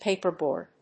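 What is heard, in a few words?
"paperboard", English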